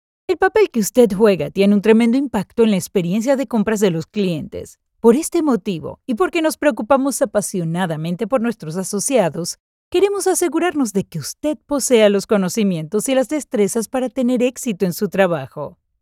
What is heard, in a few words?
eLearning Dry read Spanish